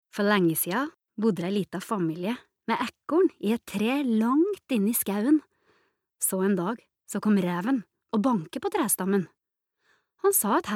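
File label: Norwegian Toten dialect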